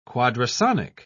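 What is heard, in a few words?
"quadrasonic", English, British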